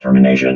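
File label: "termination", robot voice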